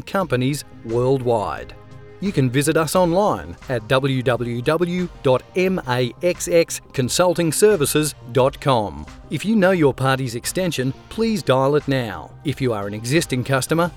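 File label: consult Australian male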